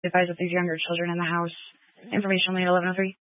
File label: Incident Tac 3, Scanner audio recording